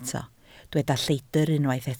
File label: "lleidr", Welsh